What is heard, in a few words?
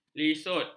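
"lisut", Malay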